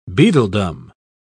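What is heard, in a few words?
"beadledom", English, British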